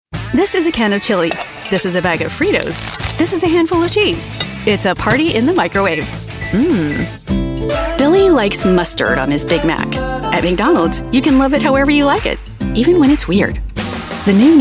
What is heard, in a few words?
english american commercial